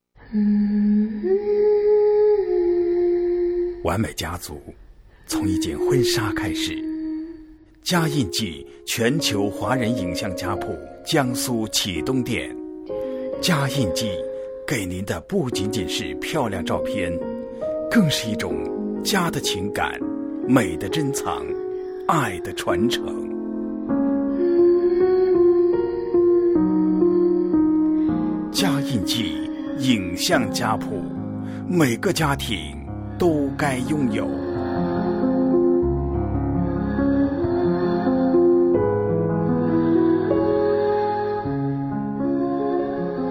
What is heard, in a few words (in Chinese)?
广告 磁性中音配音; 家印记影像家谱